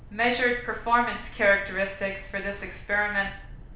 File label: room speech 8kHz